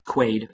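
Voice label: "kweid", Proto-Indo-European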